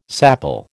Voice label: "sapele", American